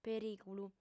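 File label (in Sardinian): "perìgulu", female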